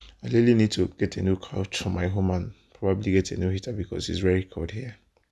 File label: noisy reference